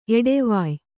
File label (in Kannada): "ಎಡೆವಾಯ್", Kannada